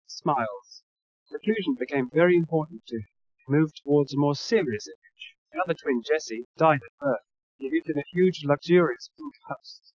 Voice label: male inst src; SiSEC11; underdetermined